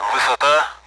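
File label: Speech Sound Russian Common AWACS; altitude; DCS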